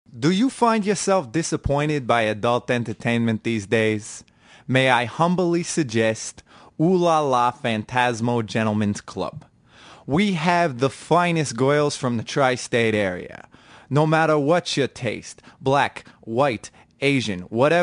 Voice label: New Jersey accent commercial; Gentleman's Club